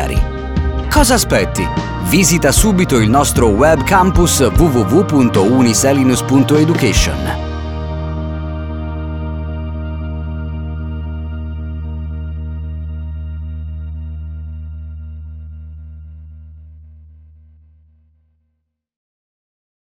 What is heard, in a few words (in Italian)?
Spot Istituzionale Business School, ITA; Uniselinus